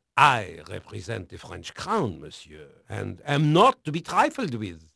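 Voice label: French male, voice; Pirates Of The Caribbean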